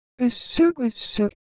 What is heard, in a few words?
Korean, Ideo Phones; Motion